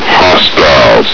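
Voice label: "hostiles", male; hl2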